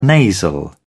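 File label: "nasal", British English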